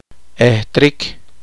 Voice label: poqomchi; heredar

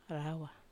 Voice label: "rawa iii", Wamesa

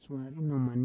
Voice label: "suari noman", Roon

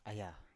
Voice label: "aya", Biak

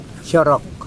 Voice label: "sail", Mokilese

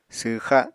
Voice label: "swxa", Apatani

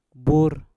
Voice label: "bur", Mpur; bulu

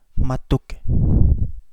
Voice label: Kapingamarangi; Pacific reef heron

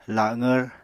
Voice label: "laqvr", Apatani

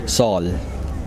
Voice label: salt, Kosraean

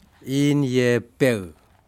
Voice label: fish bluespine Unicornfish, Aneityum

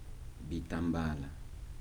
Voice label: "scarves", Kibembe

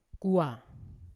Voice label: "kuwa", Yawa; yellow; unat

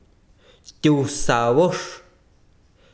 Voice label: besiro lago, chiquitano

